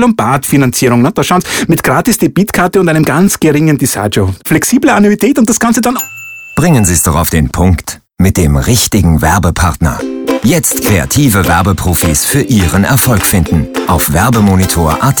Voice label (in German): werbung angebot; Hörfunk